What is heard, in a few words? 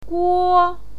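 "guo", Mandarin Chinese pinyin, first tone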